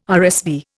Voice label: "RSV", Indian